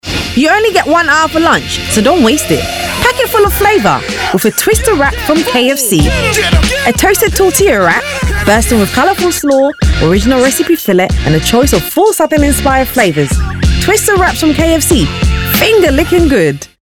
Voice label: female african and english slight ghanian accent commercial; english southern accents; kfc